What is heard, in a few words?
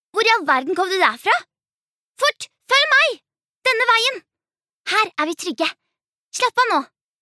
no dub character